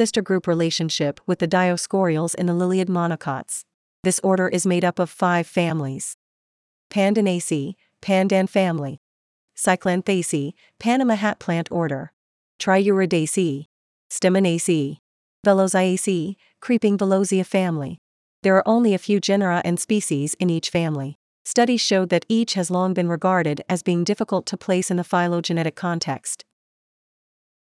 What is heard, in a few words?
Pandanales Pronunciation